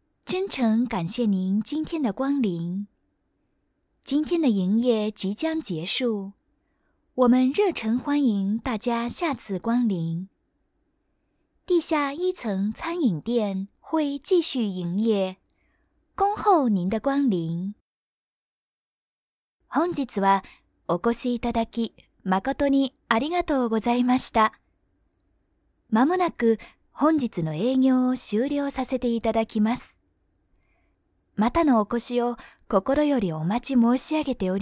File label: foreigner